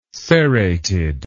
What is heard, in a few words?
"serrated", English